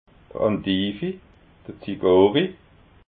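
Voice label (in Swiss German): "andivi", Alsatian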